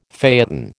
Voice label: "phaeton", American

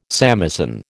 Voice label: "samisen", American

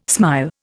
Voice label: "smile", Indian

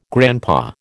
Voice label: "grandpa", American

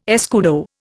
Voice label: "escudo", Indian

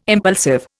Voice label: "impulsive", Indian

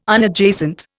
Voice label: "Unadjacent", Indian

